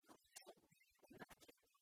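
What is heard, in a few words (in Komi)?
кымын судтаа тэнад керка, Komi, female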